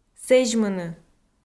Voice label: "сэзьмыны", Komi